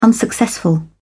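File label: "unsuccessful", British English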